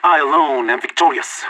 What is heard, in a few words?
last man standing, vo agents; leet